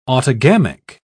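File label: "autogamic", British English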